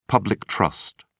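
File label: "public trust", British English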